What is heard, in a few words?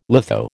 "litho", American